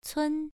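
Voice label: "cun" Mandarin, first tone, pronunciation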